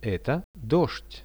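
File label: "this is rain", Russian; Это дождь; nature; priroda; RUSSIAN VISUAL DICTIONARY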